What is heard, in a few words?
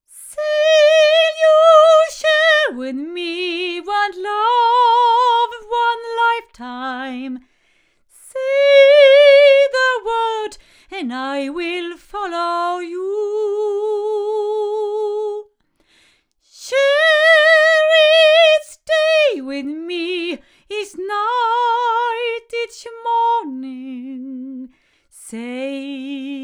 Royer R-121 back female